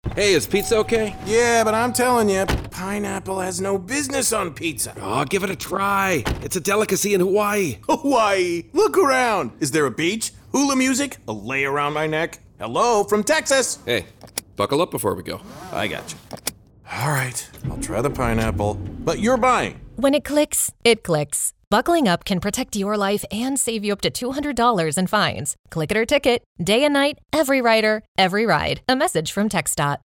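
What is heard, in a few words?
Radio, English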